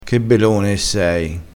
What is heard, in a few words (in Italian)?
"belone", crespinese